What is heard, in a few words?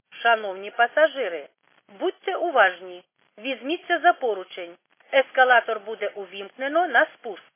khs st female, subway station